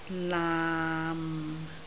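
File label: "laam6", Cantonese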